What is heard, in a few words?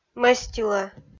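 "мӧстилӧ", Komi-Zyrian, female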